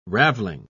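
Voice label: "raveling", British English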